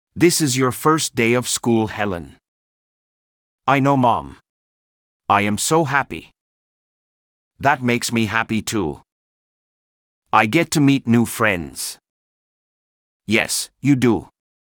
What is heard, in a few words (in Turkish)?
İngilizce Türkçe Diyalog – Okulun İlk Günü; The First Day Of School – Okulun ilk Günü; A: This is your first day of school, Helen